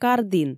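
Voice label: "кардін", Komi, female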